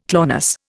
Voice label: "clonus", Indian

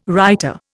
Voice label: "writer", Indian

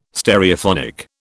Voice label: "Stereophonic", British

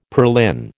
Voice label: "purlin", American

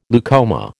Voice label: "leucoma", American

